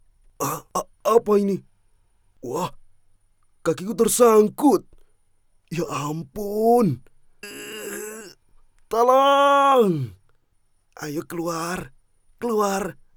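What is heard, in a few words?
character INDONESIA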